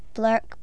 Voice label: child, speech